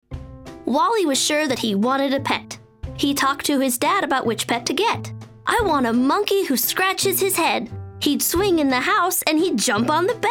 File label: Children's Story